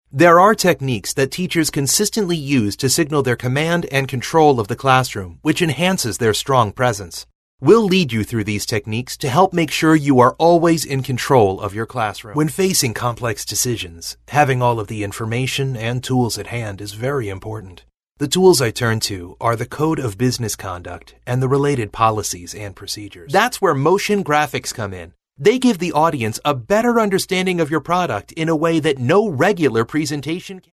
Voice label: US elearning